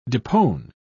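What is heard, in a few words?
"depone", English, British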